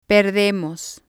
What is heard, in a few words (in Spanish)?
"perdemos", spanish